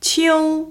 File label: "秋", Chinese